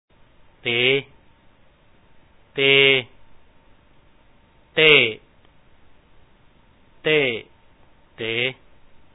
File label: te, ເຕ/ tee ເຕ່ ເຕ້ ເຕ໊ ເຕ໋, Lao; Reading Exercise